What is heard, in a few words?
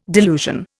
"delusion", Indian